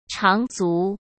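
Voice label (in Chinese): "长足", Mandarin Chinese; hsk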